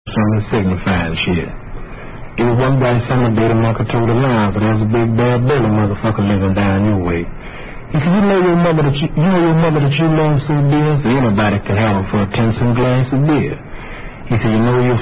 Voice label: Signifying Monkey [Recitation]

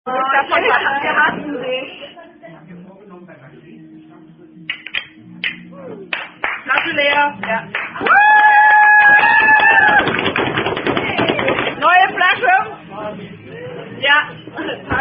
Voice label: Wir Beim Trinken, German Dice Drinking Game

